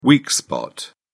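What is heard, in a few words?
"weak spot", British English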